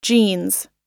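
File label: "jeans", English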